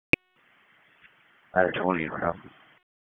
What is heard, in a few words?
PS TAC, p25